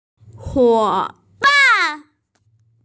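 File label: "huo ban", Mandarin Chinese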